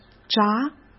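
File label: "闸", Mandarin Chinese; hsk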